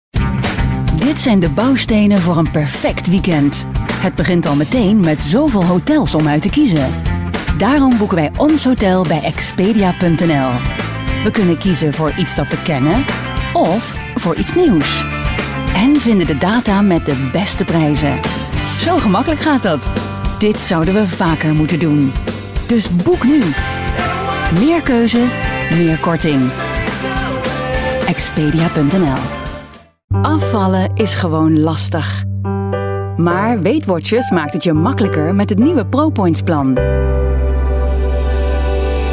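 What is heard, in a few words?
Dutch Commercial; GoLocalise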